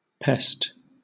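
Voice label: "pest", British English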